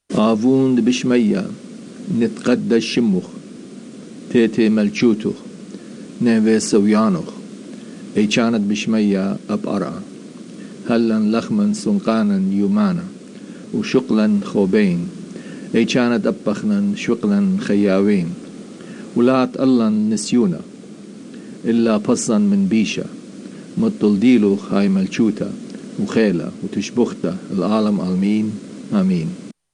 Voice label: lords prayer in aramaic